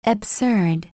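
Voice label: "absurd", USA, female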